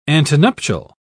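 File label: "antenuptial", British English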